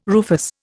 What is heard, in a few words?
"rufous", Indian